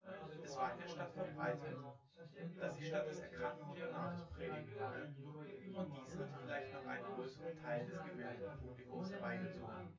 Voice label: male synth conv